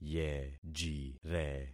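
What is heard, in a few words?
"yejire", concatenated syllables; Affixing experiment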